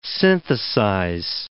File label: "synthesize", English word